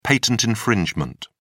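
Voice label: "patent infringement", British English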